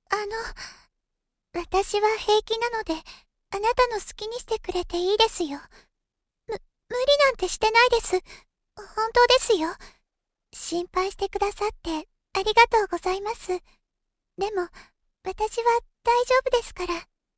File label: female